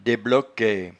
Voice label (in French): "debloquaie", patois jura